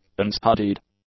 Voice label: "unstudied", english; adjectives